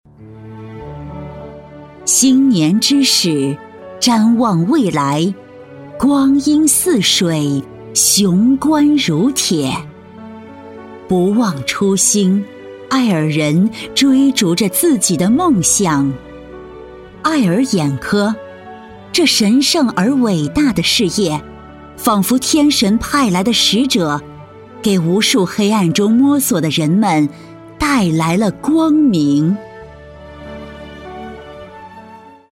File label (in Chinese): 女 朗诵 寻找光明样音